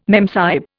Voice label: "memsahib", Indian